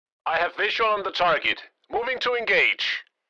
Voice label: Military radio voice command; moving to engage